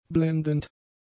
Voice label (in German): "blendend", German; adjectives